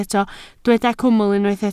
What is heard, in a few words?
"cwmwl", Welsh